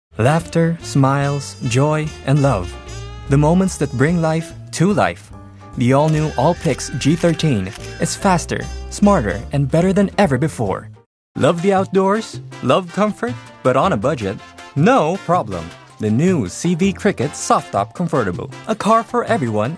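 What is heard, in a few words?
American, TV commercial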